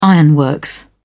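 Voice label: "ironworks", British English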